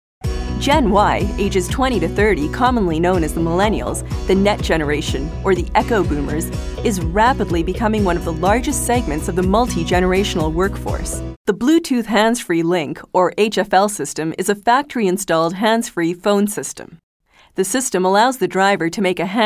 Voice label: Corporate Narration